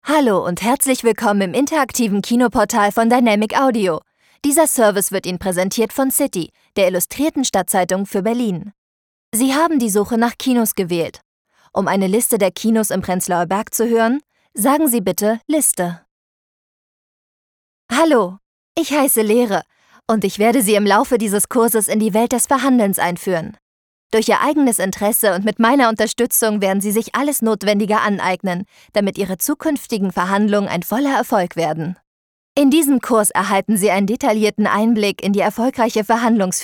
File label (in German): telefon, deutsch frauen